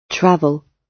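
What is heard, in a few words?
"travel", British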